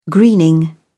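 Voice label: "greening", British English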